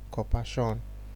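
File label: "corpershun", Nigerian Pidgin, male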